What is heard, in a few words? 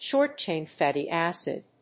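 "short chain fatty acid", English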